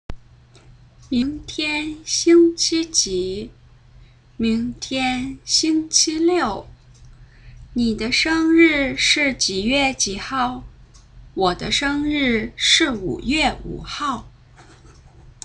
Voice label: huihua, chinese